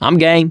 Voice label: "yes", survivor voice, mechanic